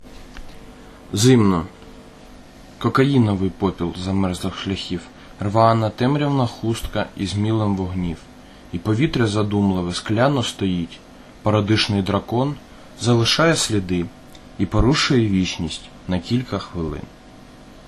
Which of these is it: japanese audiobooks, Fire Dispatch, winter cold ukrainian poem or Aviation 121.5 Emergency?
winter cold ukrainian poem